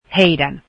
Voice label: "their", finnish